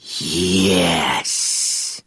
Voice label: "yes", evil

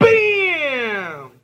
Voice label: "bam", ooh ahh

